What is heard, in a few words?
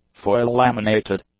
"foil-laminated", english; adjectives